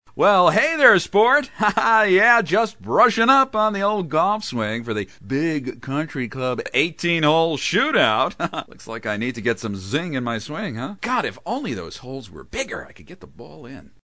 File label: Nuclear Dad, Loveable & Chipper; animation